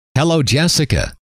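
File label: hello, male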